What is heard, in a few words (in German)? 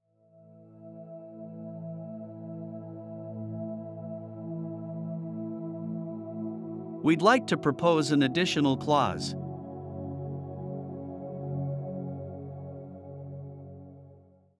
Geschaeftsverhandlungen Relax Saetze